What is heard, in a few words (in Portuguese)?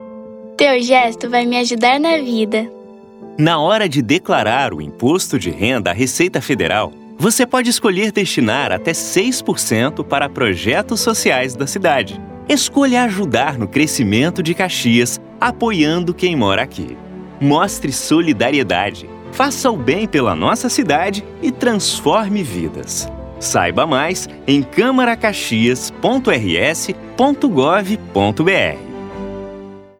spot, crianca; Camara Caxias